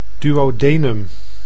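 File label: "duodenum", Dutch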